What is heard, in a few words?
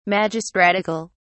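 "magistratical", British English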